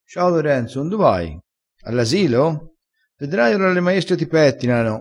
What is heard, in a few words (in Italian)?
"pettina", dialettale